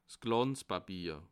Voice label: "glanzpapier", alsace

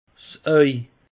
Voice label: "auj", alsace; peche

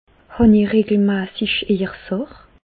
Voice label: sach, Bas Rhin; medecine

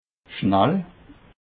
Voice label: "schnell", alsace

